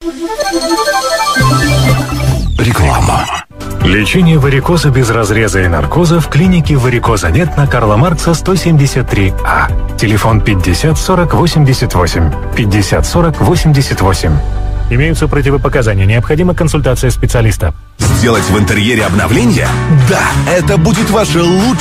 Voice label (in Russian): Mestnaya reklama; Russkoe Radio Tambov 105.9 FM